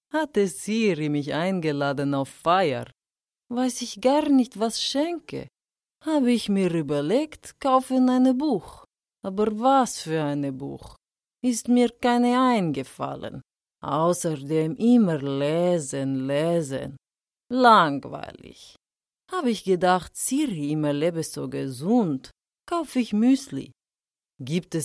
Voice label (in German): Akzent Russisch